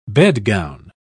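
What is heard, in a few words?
"bedgown", English, British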